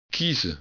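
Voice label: "kiezen", Dutch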